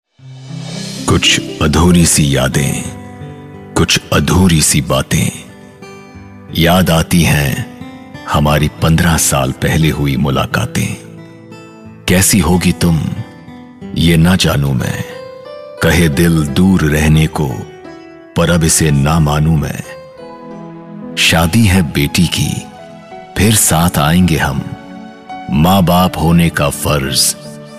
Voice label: Radio Spot; Sony TV